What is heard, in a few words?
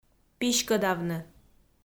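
"писькӧдавны", Komi, female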